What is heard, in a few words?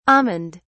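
"almond (s)", British English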